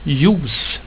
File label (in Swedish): "juice" Swedish